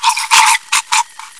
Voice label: death, bot voice commands; svencoop

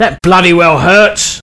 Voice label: Medic, male; svencoop

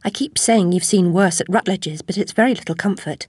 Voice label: chitchat; svencoop; Alice

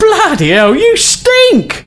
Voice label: Taunt, male; svencoop

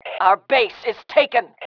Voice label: our base taken, FEM

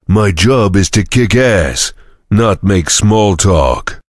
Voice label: chitchat; svencoop; voice commands; Duke

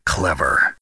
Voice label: cheer, voice commands; svencoop; Snake

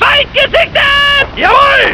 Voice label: german speak

